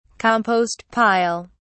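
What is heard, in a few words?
"compost pile", English, British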